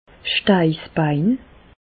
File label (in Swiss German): "steissbein", Bas Rhin; medecine; sacrum